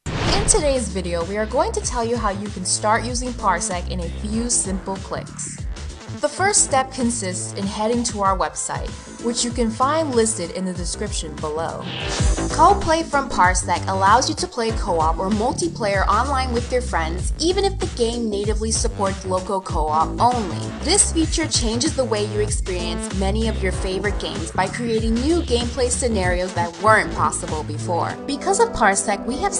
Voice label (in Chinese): 外籍女英 外语 美式英语 样音 活力